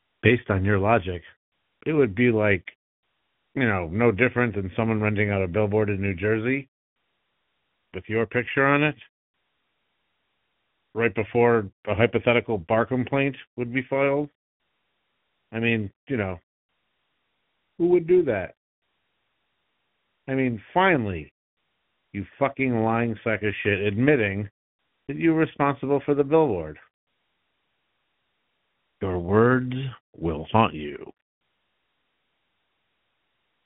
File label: voicemail; license to doxx